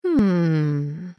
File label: female blood elf thinking